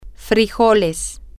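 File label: beans, Spanish, female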